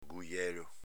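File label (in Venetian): "gujelo", Veneto dialect; s.m. bastone acuminato (sprone)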